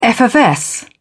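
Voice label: "effervesce", British English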